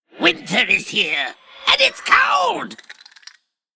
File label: Male Undead; ICC; card sounds